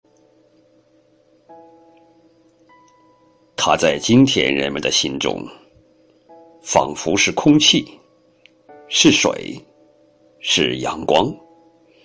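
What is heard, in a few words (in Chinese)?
货币解说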